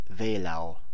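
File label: "Wehlau", German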